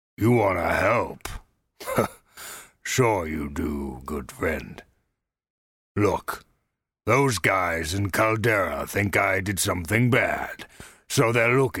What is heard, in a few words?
orc, orc voice auditions; skywind